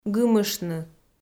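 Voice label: "гымыштны", Komi, female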